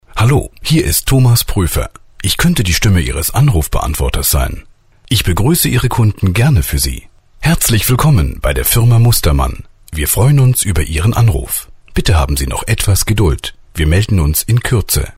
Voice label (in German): Sprecher Telefonansagen